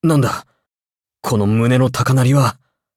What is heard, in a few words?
levelup, ja